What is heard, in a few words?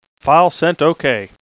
File Sent OK (Male); q95demo